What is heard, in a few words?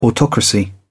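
"autocracy", British English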